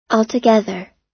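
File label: "altogether", American English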